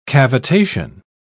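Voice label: "cavitation", British English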